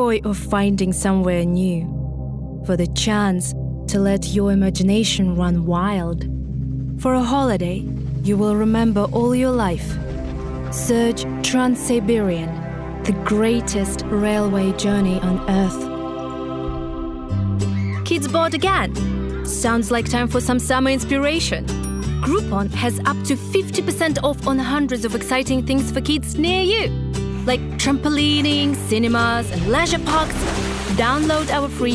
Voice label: female russian english with russian accent commercial compilation